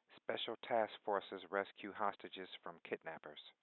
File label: 16k G712 Filtered Narrowband